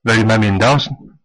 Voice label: dance westflemish

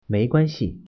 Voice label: thanks reply, Mandarin

